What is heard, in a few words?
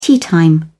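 "teatime", British English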